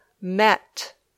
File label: "met", English